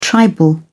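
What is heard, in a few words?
"tribal", British English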